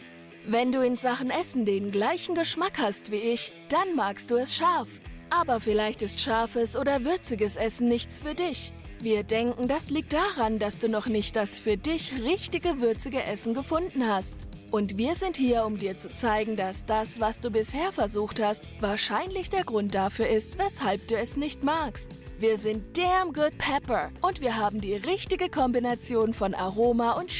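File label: commercial, German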